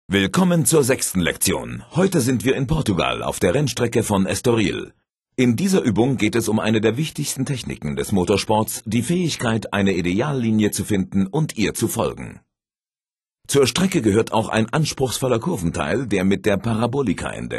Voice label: racingline german, speech; MotoGP 3